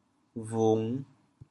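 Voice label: "bhung5", Teochew, chaoyang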